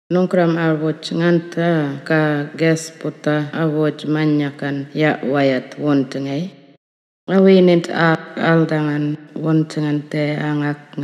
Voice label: wik mungkan essential services hardship assistance